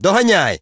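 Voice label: talk, Ukrainian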